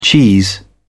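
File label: "cheese", British English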